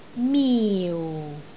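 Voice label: "miu4", Cantonese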